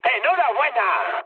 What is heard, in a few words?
player killed enemy, mood med, speech